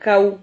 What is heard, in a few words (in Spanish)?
"jau", Silabas